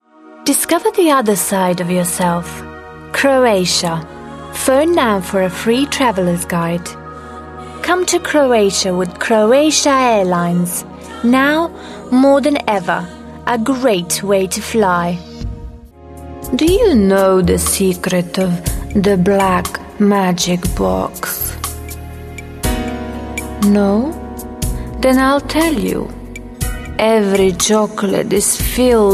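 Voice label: Serbo-Croatian, English, eastern european; Female; Teens-20s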